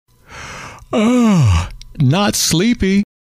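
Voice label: yawn not sleepy, male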